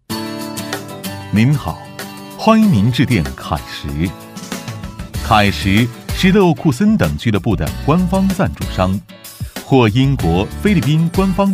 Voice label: 男 电话彩铃 品质; 稳重磁性; 科技时尚

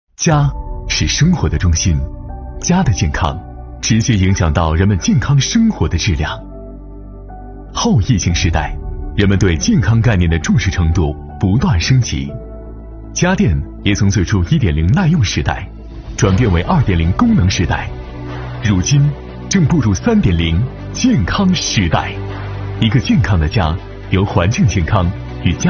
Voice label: 男 品牌介绍 年轻科技; 西门子; 男B3-磁性稳重; 科技感

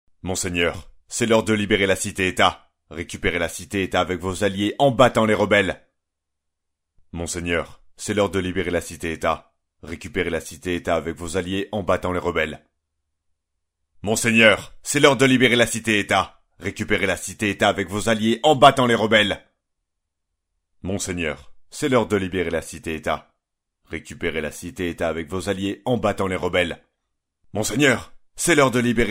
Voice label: 法语男声 角色

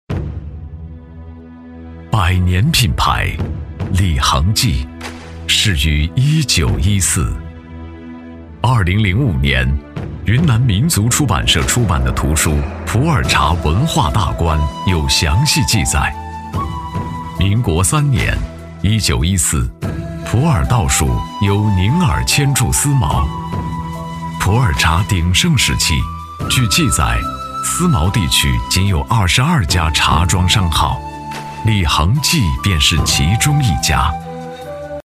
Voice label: 男 品牌宣传 大气抒情; 李衡记; 大气激昂

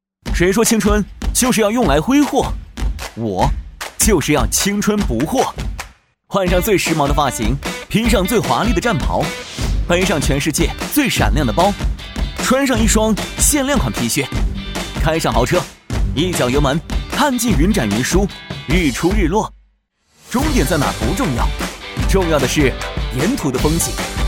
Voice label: 男-独白-青春向上, 全能型 自然诉说

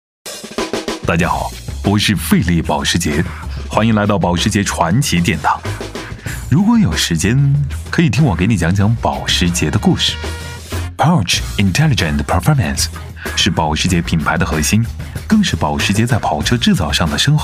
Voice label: 男 宣传片 人物 欢快; 磁性沉稳; 保时捷

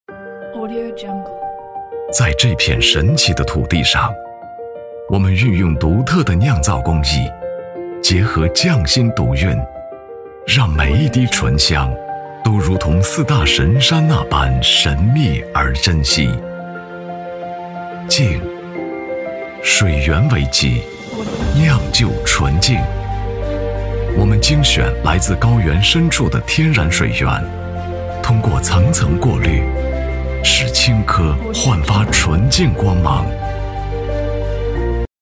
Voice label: 男 酒宣传片 温暖磁性; 青稞酒; 醇厚磁性 质感磁性

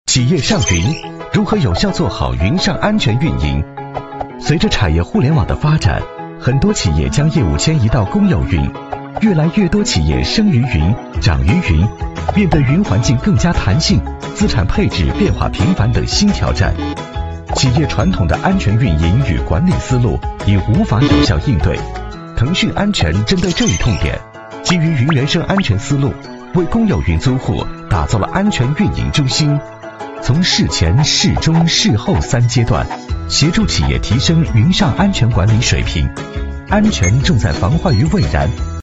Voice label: 男 飞碟MG; 腾讯安全系列 云办公时代; 男42-磁性质感; 轻松活泼

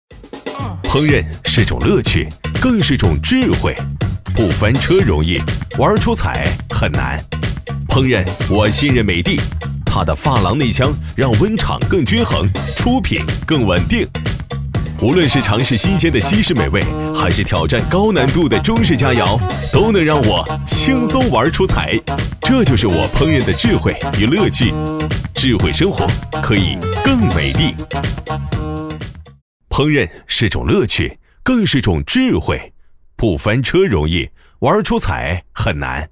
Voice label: 男 广告 品牌, 年轻浑厚 高端大气; 美的

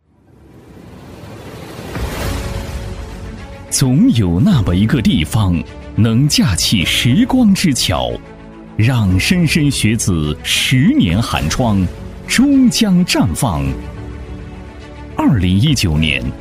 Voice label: 男, 学校宣传片, 大气抒情; 永州市潇湘源学校; 正气明亮 高端大气; 学校宣传片《永州市潇湘源学校》-大气抒情

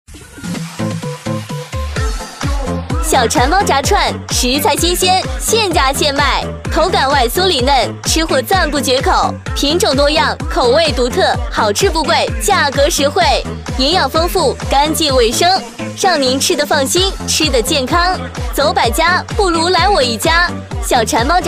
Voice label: 活泼女 炸串店; 激情力度; 馋猫炸串店

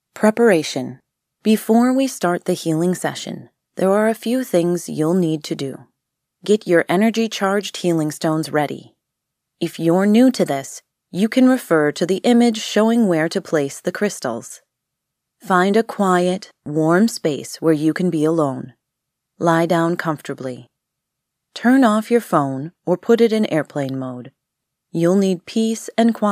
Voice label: 美式女声 干音

